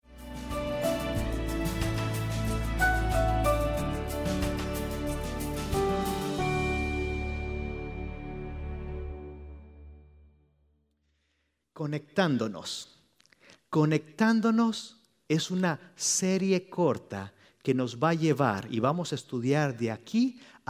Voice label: Spanish Sermon

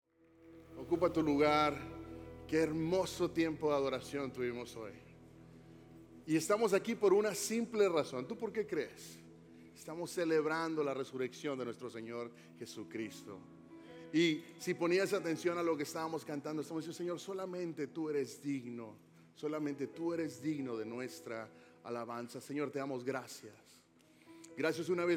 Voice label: Sermon Spanish Easter

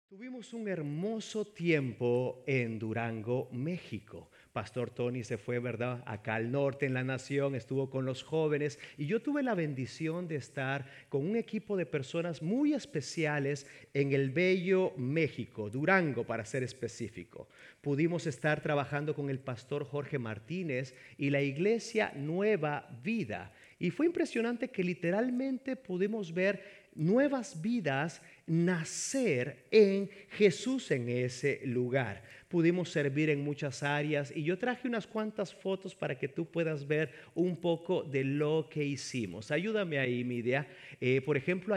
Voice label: SERMON SPA AUDIO

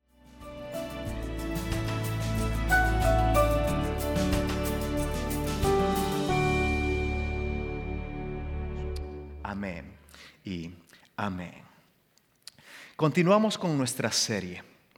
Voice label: SERMON AUDIO SPA

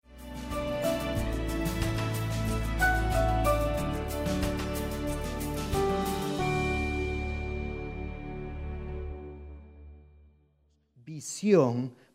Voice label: Spanish Sermon

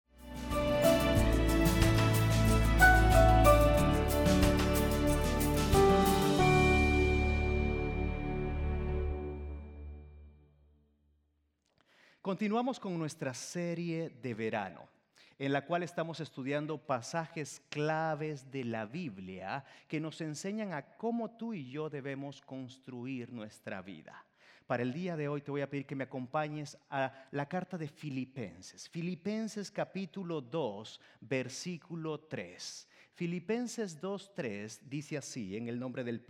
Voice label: SERMON AUDIO SPA

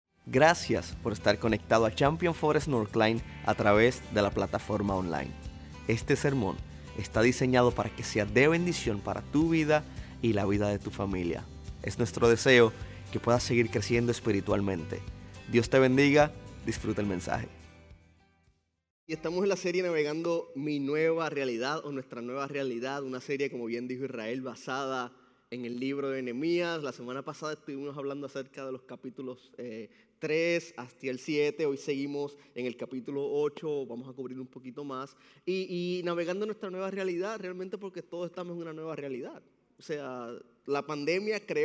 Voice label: Sermon Spanish

